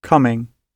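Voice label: "coming", British English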